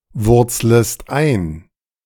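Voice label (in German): "wurzlest ein", German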